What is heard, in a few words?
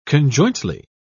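"conjointly", British English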